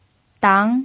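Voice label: "dang" second tone, Mandarin Chinese pinyin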